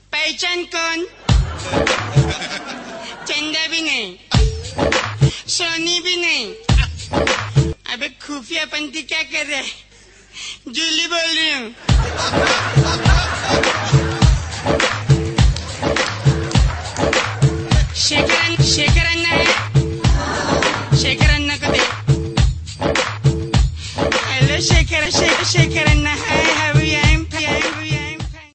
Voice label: Hindi Funny Ringtone; Paichan Kaun; Naveen Prabhakar; Ringtones